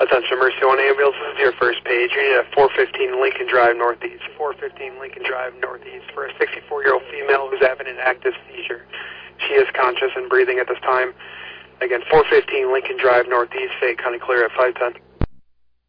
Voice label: Oelwein Ambulance, dispatch

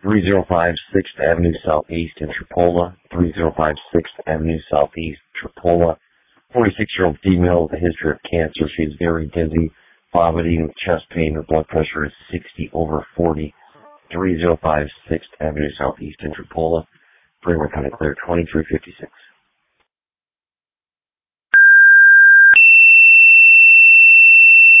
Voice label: Ambulance, dispatch; Sumner

